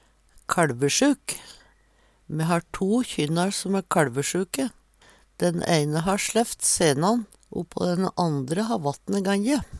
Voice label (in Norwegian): kalvesjuk, Numedalsmål